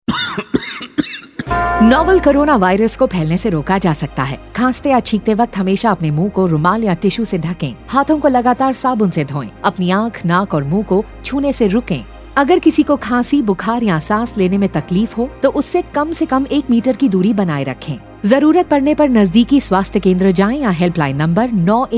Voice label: Cough Hindi Female, COVID-19 Materials Radio Spot Hindi; SARS-CoV-2; UNICEF IEC eWarehouse